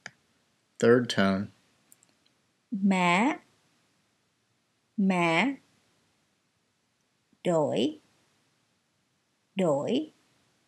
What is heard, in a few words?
3rd tone